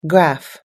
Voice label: "graph", US English

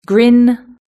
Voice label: "grin", British English

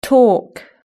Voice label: "talk", British English

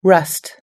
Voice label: "rust", US English

